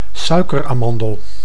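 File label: "suikeramandel", Dutch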